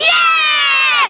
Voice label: "yeah" kids; ooh ahh